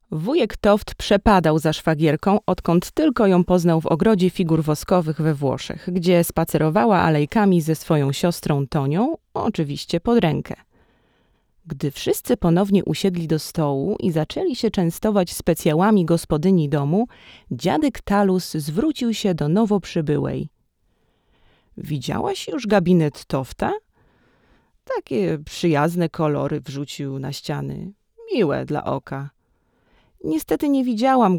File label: Audiobook, lektorka